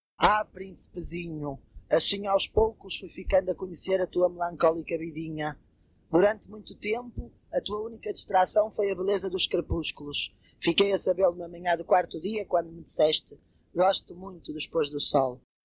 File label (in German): Portugiesisch